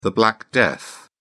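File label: "black death", British English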